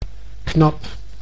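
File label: "knap", Dutch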